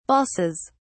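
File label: "balsas", British English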